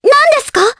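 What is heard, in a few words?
Vox, voices, Japanese; Ophelia; kings raid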